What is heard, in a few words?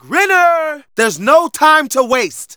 Narration